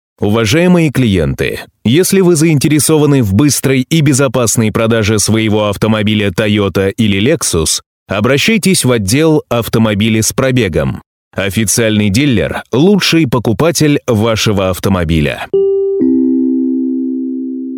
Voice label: IVR; toyota; ПРИМОРСКИЙ

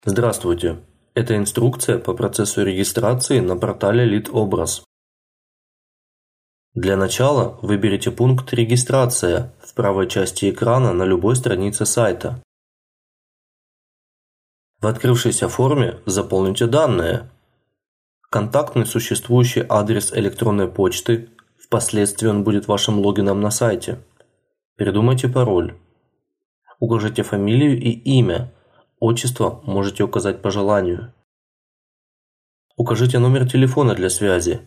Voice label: Озвучка видеоролика, аудио- и видеомонтаж (с мужским голосом)

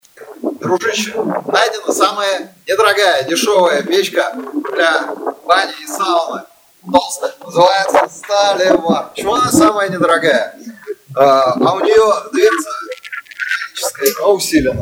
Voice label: vocals

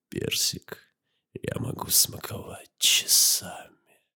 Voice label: скрипящий голос

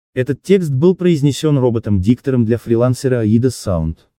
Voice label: робот мужчина голос

